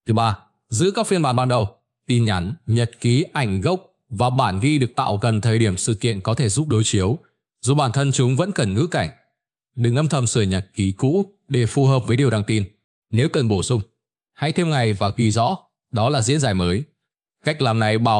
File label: narration